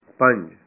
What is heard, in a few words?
"5", Punjabi